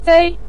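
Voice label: "zei" neutral tone, Mandarin Chinese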